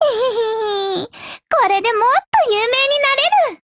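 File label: win, voice, Japanese; GF Alarm; C93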